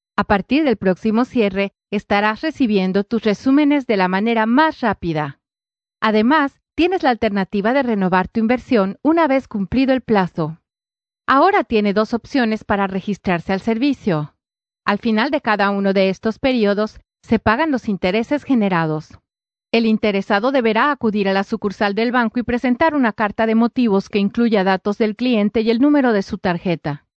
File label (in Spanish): Spanish South America, F